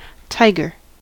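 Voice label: "tiger", US English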